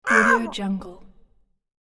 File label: cartoon crow ouch pain; cartoon sounds